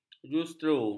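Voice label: "justeru", Malay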